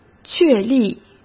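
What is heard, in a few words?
"确立", Mandarin Chinese; chinese-flashcards; hsk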